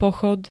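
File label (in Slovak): "pochod", Slovak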